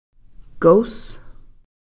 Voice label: "kos", Cow Creek; abalone shell

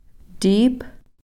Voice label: "tiiph", camas

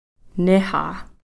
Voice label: "nixa", Cow Creek; mom

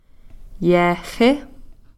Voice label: "yeexi", Cow Creek; awl

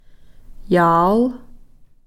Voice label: "yaal", Cow Creek

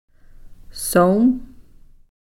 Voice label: "sowm", mountain

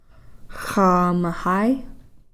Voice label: "xaamahai", Cow Creek

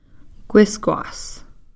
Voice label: "kwiskwas", Cow Creek; chipmunk

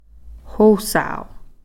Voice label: "hosau", Cow Creek; growing-older

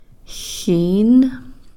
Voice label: "xiin", mucus

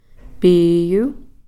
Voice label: "piiyu", grasshopper

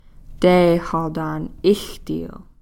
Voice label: "teehaltan-ixtiil", fifty

